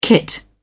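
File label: "kit", British English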